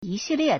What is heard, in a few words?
"yi3 se4 lie4", Chinese